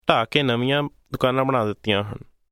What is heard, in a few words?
destruction phrase, punjabi; English: destruction